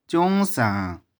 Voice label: "ciung1sang1", 玉環

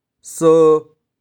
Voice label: "so3", 玉環

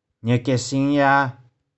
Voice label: "nih4kih4shing1ya3", 玉環

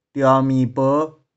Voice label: "tiau3mi2po5", 玉環

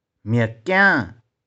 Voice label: "mih4kiang6", 玉環

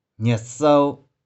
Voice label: "nih4sou3", 玉環

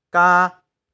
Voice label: "ka3", 玉環

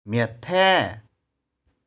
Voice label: "mih4thae6", 玉環

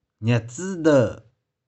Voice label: "nih4tsy2deu1", 玉環

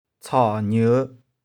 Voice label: "tshau2nieu5", 玉環

